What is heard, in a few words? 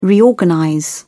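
"reorganize", English, British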